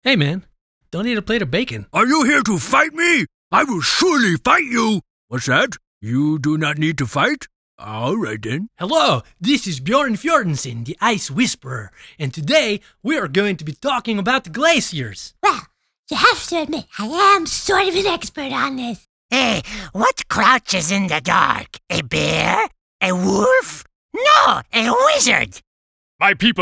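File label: Animation Character voice over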